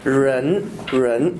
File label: ren male